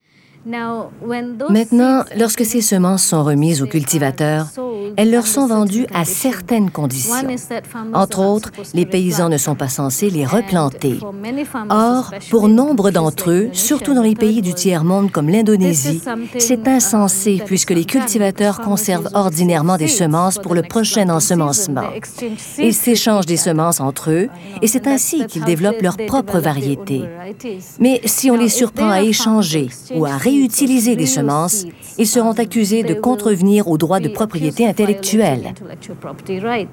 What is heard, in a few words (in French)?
Voix Narration